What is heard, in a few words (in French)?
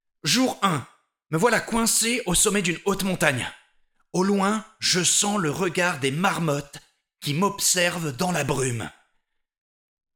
Doublage animation